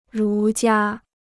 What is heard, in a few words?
"儒家", Chinese